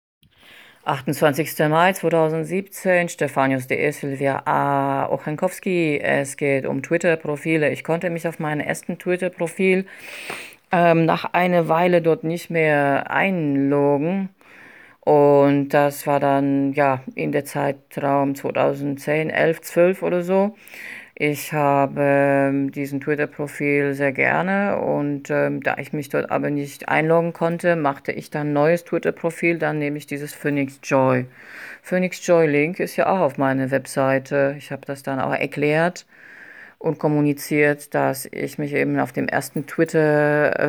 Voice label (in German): Sprachmemo